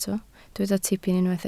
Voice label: "tipyn", Welsh